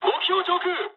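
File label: near waypoint mood high, speech japanese; War Thunder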